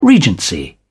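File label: "regency", British English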